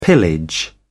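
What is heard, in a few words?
"pillage", British English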